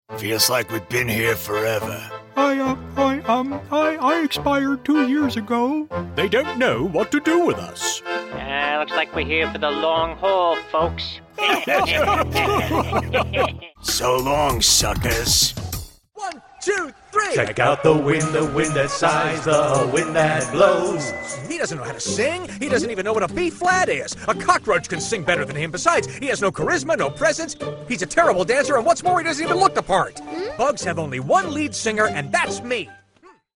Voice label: english american animation

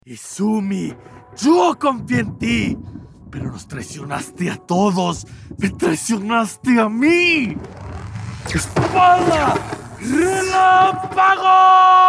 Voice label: spanish latin american animation

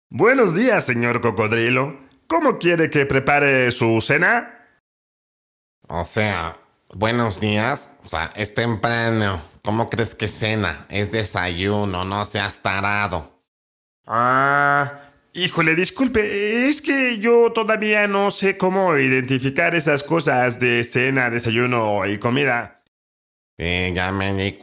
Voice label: spanish latin american animation

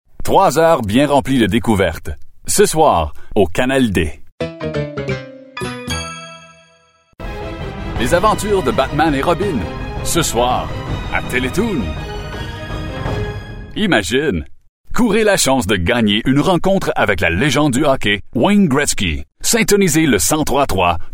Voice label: french canadian promos

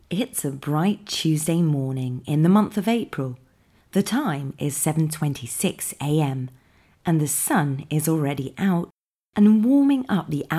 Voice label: english british audiobooks

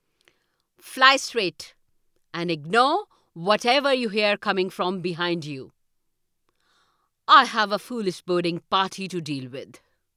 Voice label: english indian audiobooks

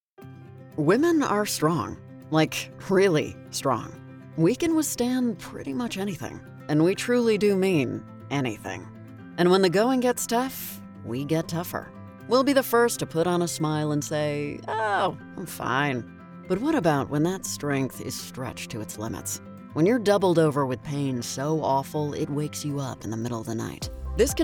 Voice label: english american medical narration

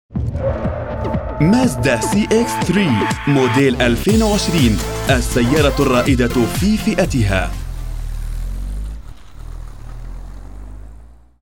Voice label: arabic automotive

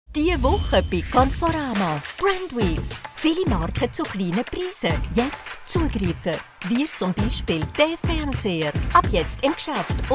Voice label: german switzerland commercial; France

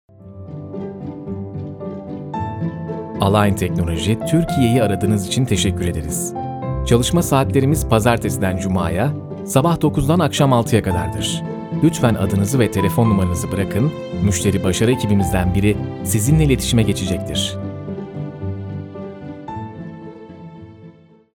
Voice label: turkish ivr

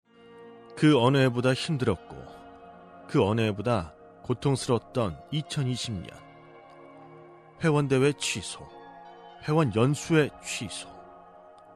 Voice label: korean narration

